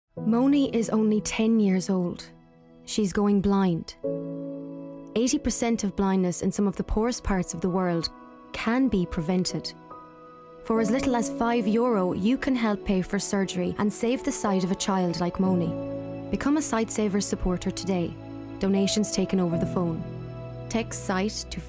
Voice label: Commercial

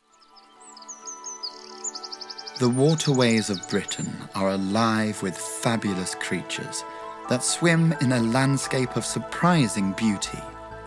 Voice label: Documentary Reel; Catching The Impossible

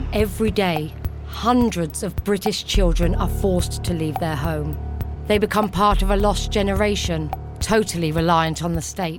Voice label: Docu - Neutral RP

